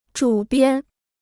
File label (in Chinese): "主编", Chinese